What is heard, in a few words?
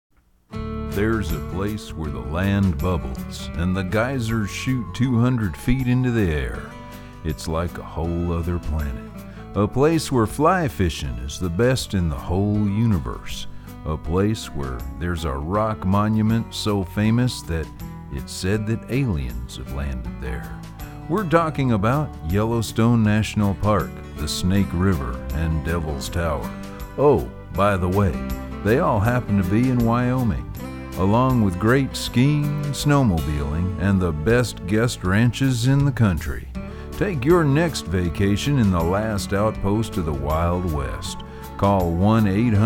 Commercial Voiceover